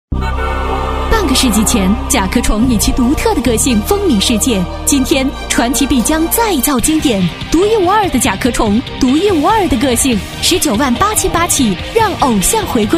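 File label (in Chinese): 女-广告配音【时尚动感】; 甲壳虫车; 科技时尚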